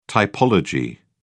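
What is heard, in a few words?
"typology", British English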